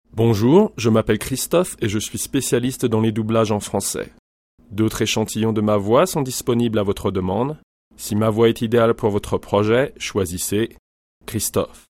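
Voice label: French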